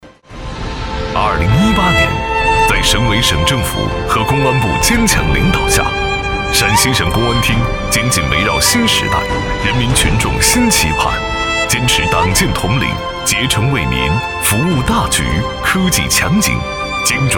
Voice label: 国语男-政府党建; 陕西公安

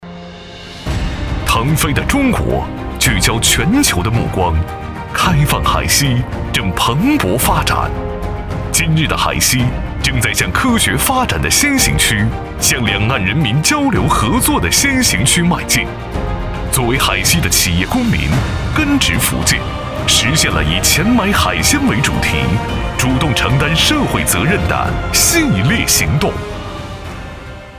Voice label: 国语男-政府形象; 海西先行区

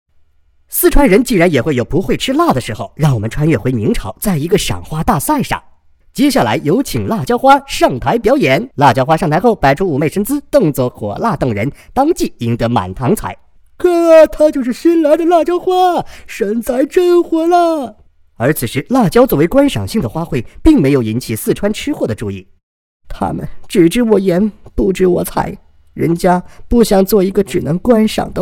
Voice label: 国语男-多角色（变声）; 飞碟; 抖音

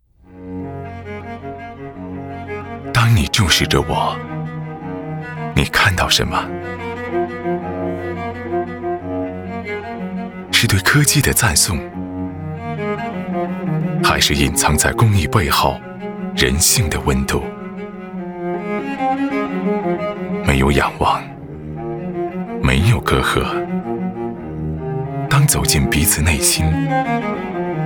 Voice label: 国语男 形象片 科技; 卡萨帝-只为每个不凡的你

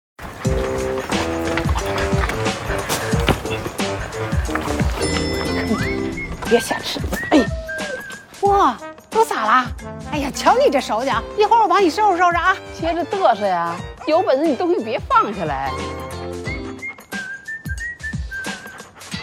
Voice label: 国语男 广告 科技产品类 智能锁; 优点智能锁

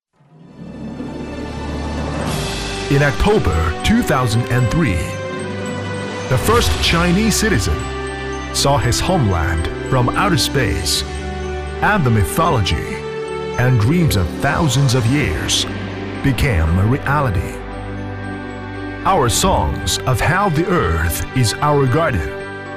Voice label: 英语男, 史诗大气

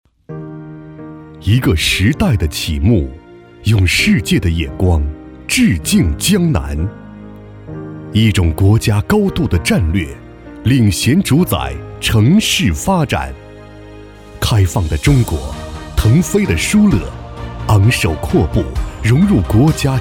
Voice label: 国语男, 宣传; 远东首府

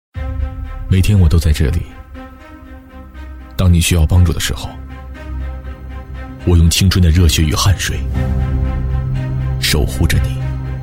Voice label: 国语男 广告 宣传片; 中国消防救援

